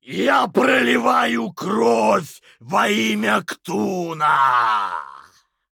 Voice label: Male Dwarf Play